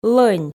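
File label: "лӧнь", Komi, female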